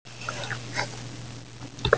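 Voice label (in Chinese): "zhi2ye4", Mandarin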